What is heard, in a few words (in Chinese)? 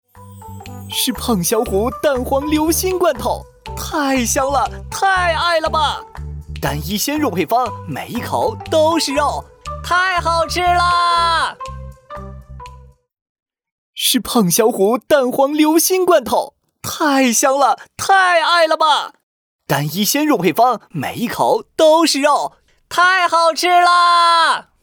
活力广告